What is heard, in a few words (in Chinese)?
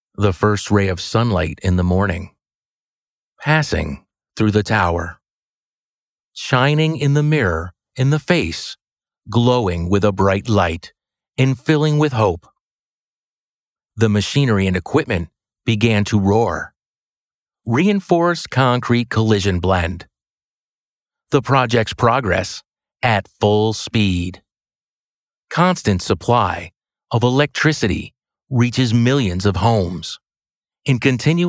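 外籍男声 走心 美式英语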